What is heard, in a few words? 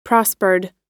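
"prospered", US English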